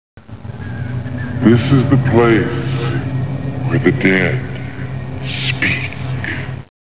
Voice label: dead speak